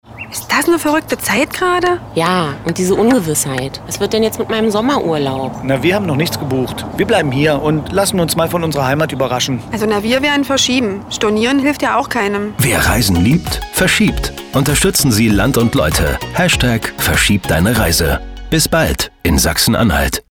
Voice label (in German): Spot